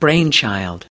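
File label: "brainchild", US English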